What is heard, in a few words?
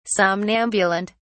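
"somnambulant", British English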